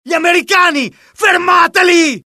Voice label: alert, dialogue IT; mohaa; attack